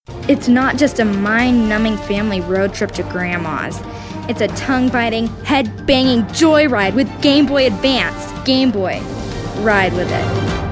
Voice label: Commercial, Female voices